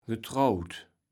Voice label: "getrauwt", Kaevels Platt